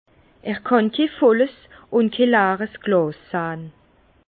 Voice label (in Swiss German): "volls", Bas Rhin; le verre plein je te vide vide je te plains; vin